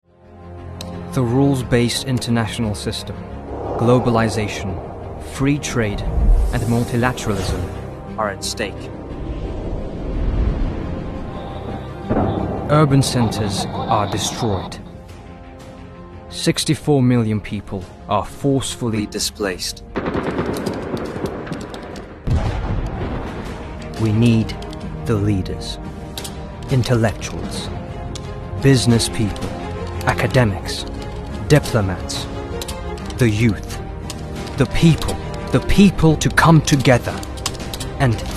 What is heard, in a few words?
male, Turkish, Estuary English accent; antalya diplomacy forum republic of turkey